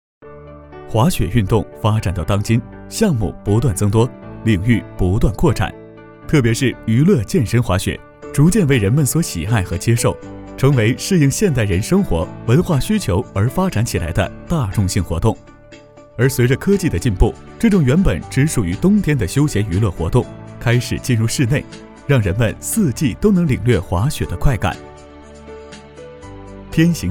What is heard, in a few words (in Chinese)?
男 专题 科技感; 天行健 滑雪机